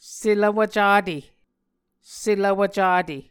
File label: "selvwv cate", Muscogee